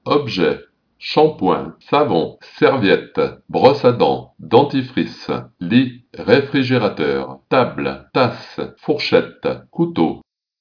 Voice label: french